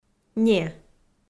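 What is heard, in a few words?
"не", Komi, female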